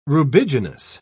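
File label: "rubiginous", English, British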